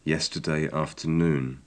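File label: yesterday afternoon, British English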